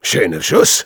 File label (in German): Spy nice shot, de; "Schöner Schuss."